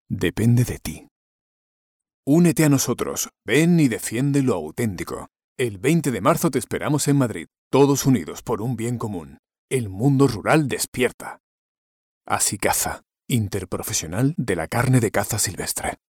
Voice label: spanish political ads